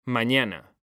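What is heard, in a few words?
"mañana", Spanish, male; mekanta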